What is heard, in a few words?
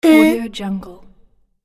boy says "uh"